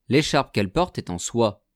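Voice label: "l echarpe quelle porte est en soie", French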